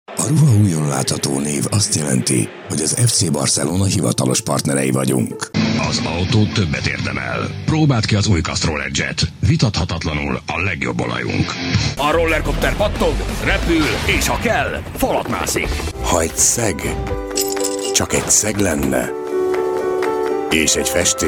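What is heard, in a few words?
hungarian promos, Hombre; hungarian voice actors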